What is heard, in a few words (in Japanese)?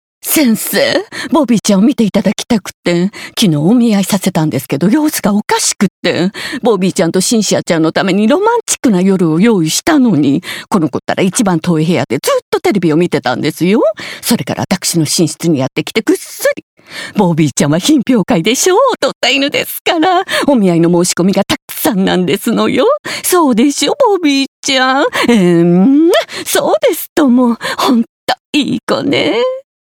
セレブ奥様